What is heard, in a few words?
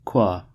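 "qua", US English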